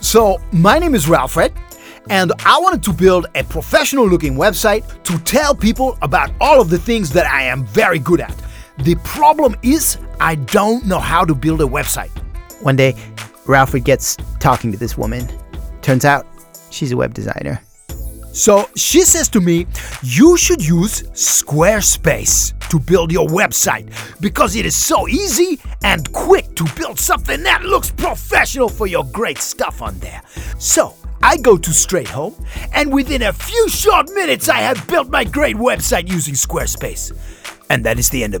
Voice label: CREAKY VOICE; SQUARESPACE; ADAM BUXTON SPONSOR JINGLES